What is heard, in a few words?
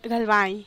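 "galbai", Teotitlán del Valle Zapotec; life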